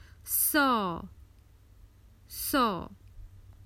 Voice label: A hundred, Common Hindi Phrases for travel